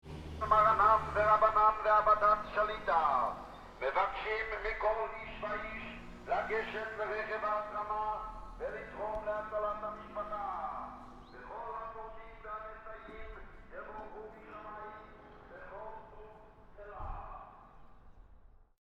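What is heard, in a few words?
speaker car Hebrew donate for family; atmosphere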